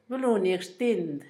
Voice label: puerta de carrizo, Zapoteco, Asunción Ocotlan; Objetos; INALI

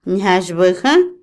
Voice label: avión, Otomí, mujer; Moderno; INALI

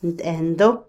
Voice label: "honda", Otomí, mujer; Vocabulary; INALI

